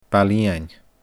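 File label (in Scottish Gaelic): "Baile Iain", Scottish Gaelic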